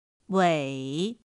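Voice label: "ǔi", Chinese; pinyin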